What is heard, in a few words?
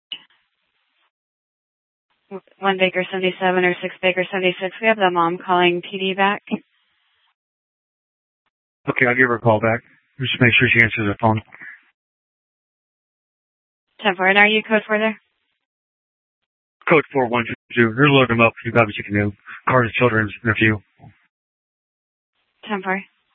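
Police Department Dispatch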